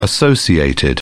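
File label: "associated", British English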